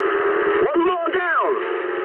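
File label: English player killed enemy mood high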